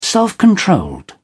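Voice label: "self controlled", British English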